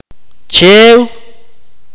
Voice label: "freeze", Akateko